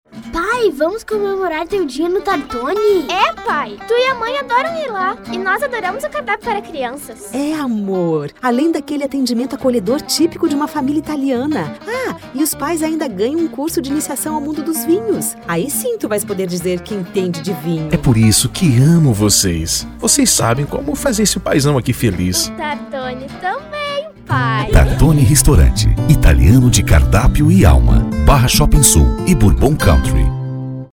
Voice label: Spot